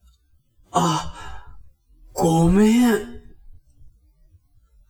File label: man